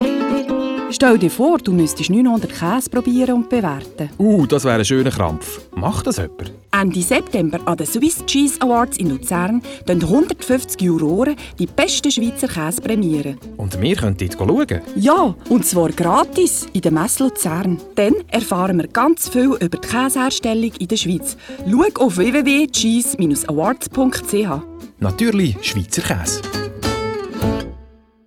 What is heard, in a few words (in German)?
Radiospot; Swiss Cheese Award